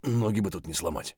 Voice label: mutter; Escape from Tarkov